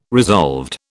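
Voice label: "resolved", British English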